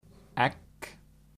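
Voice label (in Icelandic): "egg", Icelandic; matarhefti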